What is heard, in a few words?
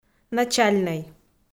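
"начальнӧй", Komi, female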